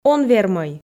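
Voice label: "он вермӧй", Komi, female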